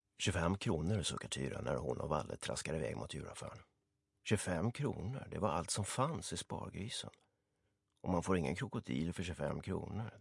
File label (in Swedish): story swe